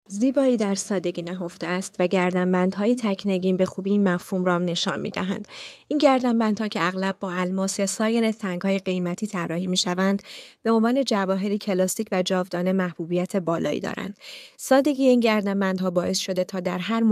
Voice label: Voice isolated